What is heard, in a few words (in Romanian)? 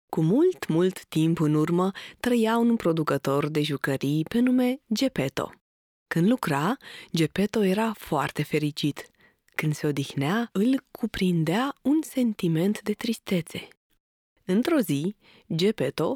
poveste